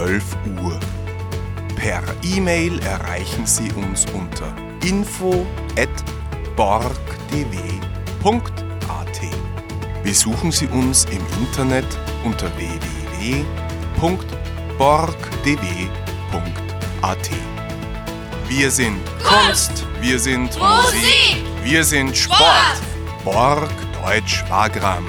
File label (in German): Warteschleife Öffnungszeiten